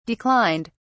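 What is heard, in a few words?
"declined", British English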